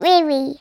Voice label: human voice girl 2 years says "weewee"; sound effects one

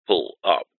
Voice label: aircraft plane cockpit voice says "pull up"; zapsplat

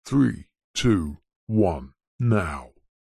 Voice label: voice countdown male 3 2 1 now chorus

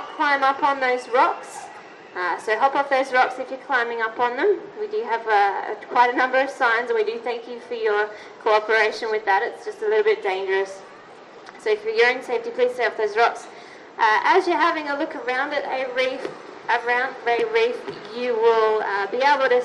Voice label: theme park ray pool female staff tannoy info; seaworld australia

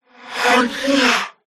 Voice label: horror voice ghostly says "I'm here"

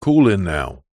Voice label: radio un processed male voice says call in now

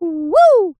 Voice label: "woo", cartoon character high pitched

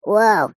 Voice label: cartoon character high pitched says "woah"

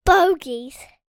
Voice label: child 4 years boy say "bogies"; human

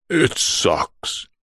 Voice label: human male deep voice english says it sucks; zapsplat

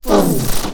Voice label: Alien Scientist; little robot sound factory

